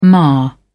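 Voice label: "mar", English, British English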